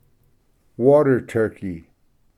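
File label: "water turkey", English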